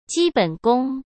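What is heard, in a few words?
"基本功", Mandarin Chinese; chinese-flashcards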